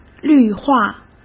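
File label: "绿化", Mandarin Chinese; chinese-flashcards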